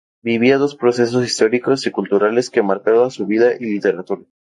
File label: marcaron, spanisch; mozilla voice